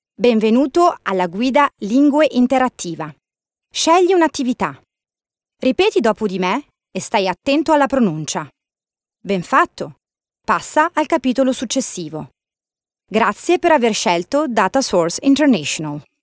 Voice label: Female Italian Other Projects